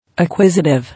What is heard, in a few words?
"Acquisitive", English